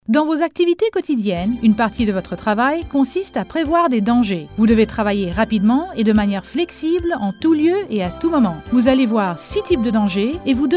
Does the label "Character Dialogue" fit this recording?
No